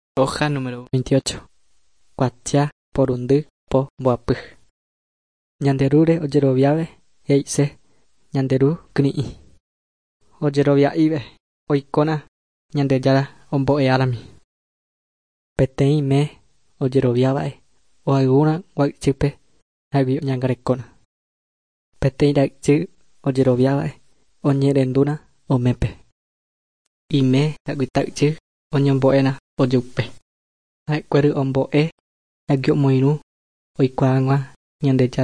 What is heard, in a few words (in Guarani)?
guarani mbya; good news and words of life; bible